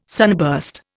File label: "sunburst", Indian